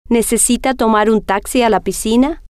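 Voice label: necesita Tomar Taxi Piscina, Spanish, female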